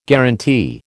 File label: "guarantee", American